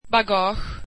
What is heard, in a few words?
swedish luggage